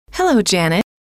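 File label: hello, female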